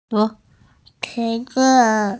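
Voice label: "qun2zi5", Mandarin Chinese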